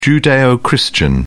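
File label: "judeo-christian", British English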